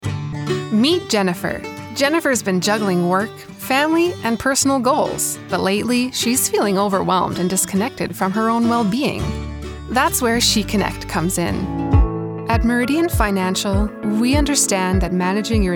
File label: Narration, Female